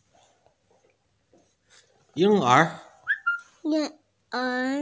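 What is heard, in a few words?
"ying1er2", Chinese, female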